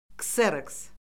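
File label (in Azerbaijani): "kseroks", Azerbaijani; Orfoqrafiya Lüğəti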